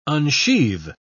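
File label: "unsheathe", British English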